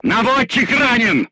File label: voice message gunlayer wounded, crew tanks russian